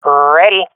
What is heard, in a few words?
reporting in, swat fem; vo; Counter Strike Model Pack; CS:GO